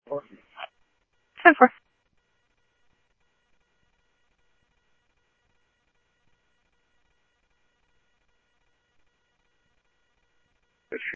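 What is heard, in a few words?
Phoenix police department, scanner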